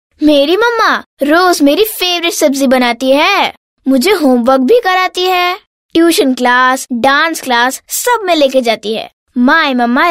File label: Hindi, female voice